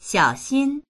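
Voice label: "xiao3xin1", Mandarin Chinese; 小心; 注意する、気をつける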